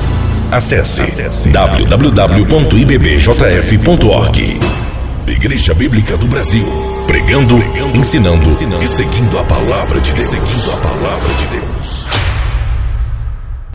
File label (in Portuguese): VOZ IMPACTO GRAVE vinheta para circulo de oracao